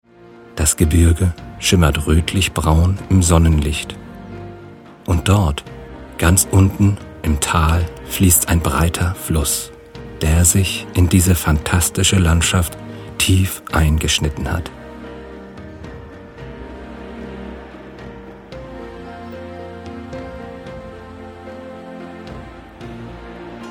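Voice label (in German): fliegen Gran Canyon männlich; Orte der Entspannung; Phantasiereisen; Tiefenentspannung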